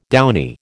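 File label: "downy", American English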